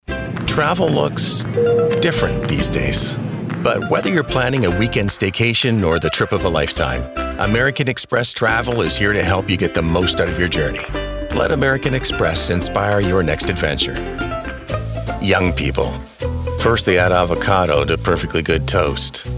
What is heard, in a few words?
Commercial